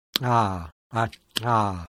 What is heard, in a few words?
palatoalveolar click, IPA sounds